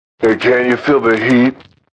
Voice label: Can U Feel Heat, Radio, Male; VoiceBox